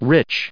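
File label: "rich", American English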